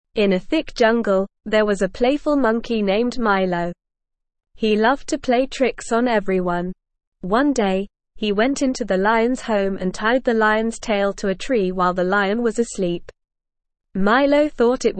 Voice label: ESL Short Stories for Kids Lower Intermediate NORMAL Reading; The Mischievous Monkey